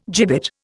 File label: "gibbet", Indian